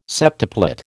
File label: "septuplet", American